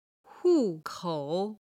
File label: "hu4 kou3", chinese